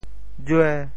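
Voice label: "jue5", Teochew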